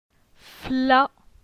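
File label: "Fla", French; phonetique; la syllabe a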